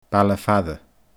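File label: "Baile Faire", Scottish Gaelic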